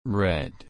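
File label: "red", English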